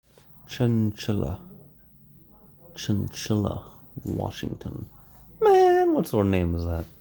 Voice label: Voice message